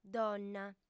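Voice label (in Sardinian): "donna", female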